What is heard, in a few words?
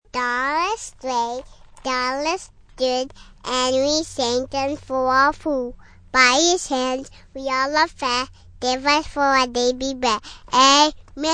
Child Says Dinner Prayer, Prayer said by toddler; Talking Sound Effects; Sound Effects:Voice